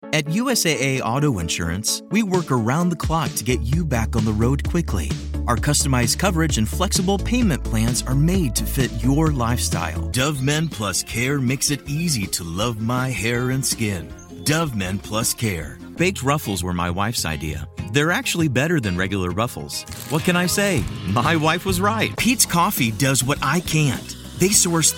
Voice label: Commercial